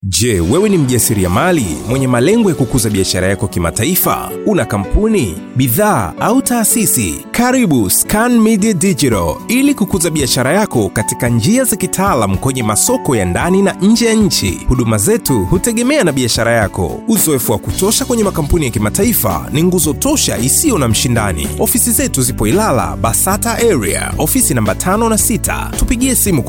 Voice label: Swahili narration

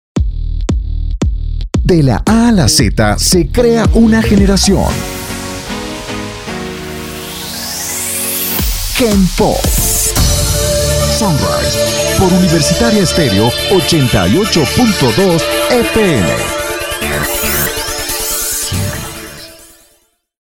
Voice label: Spanish Latin American animation

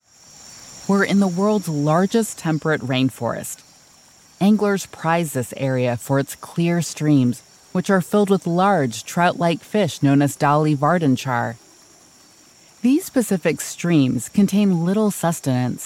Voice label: english american documentaries

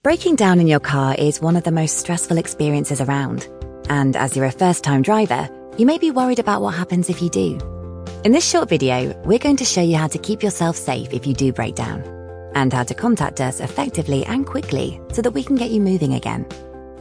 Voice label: english british; locutora británica, originaria de Bristol

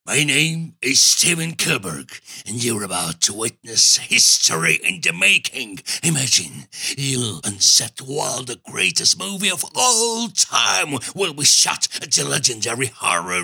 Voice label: hungarian animation, Hombre; Húngaro; Hungría; Animación

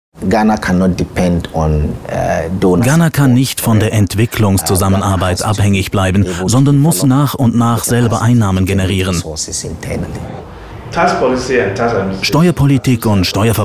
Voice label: german documentaries

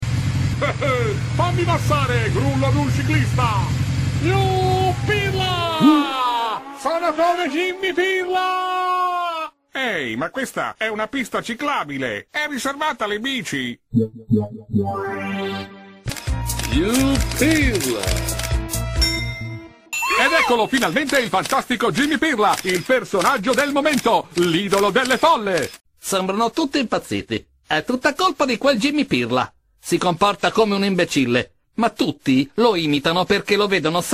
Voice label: italian animation

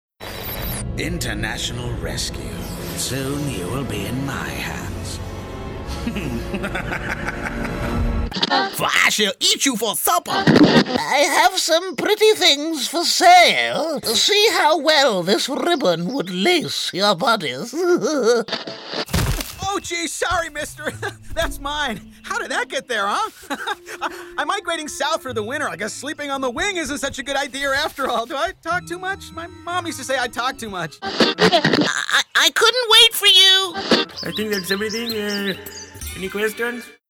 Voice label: english british animation

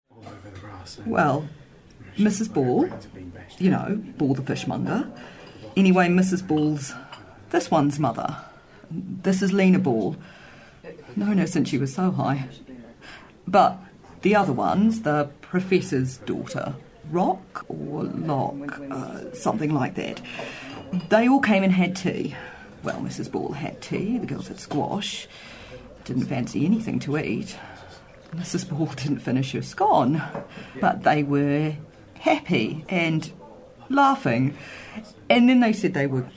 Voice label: english new zealand others

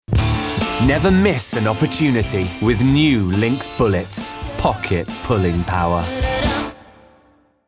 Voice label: english british promos

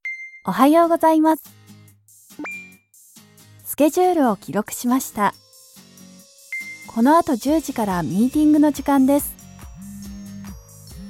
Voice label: japanese others

